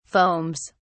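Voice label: "foams", British English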